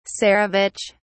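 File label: "tsarevich", British English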